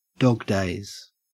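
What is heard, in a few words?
"dog days", Australian English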